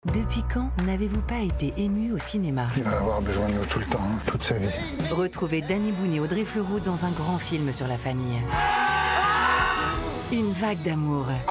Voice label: SPOT

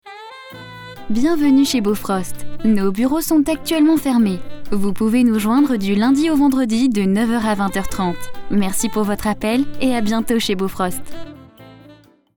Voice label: répondeur; Bofrost

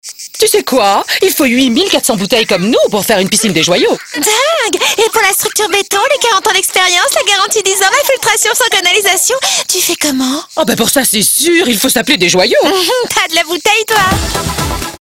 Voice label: TV voix; Desjoyaux

